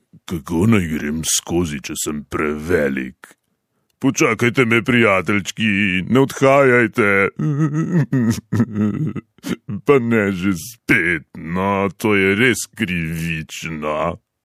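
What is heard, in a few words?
slovenian male character; voice demos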